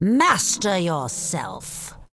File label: Undead Female Magic NPC Pissed